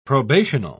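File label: "probational", British English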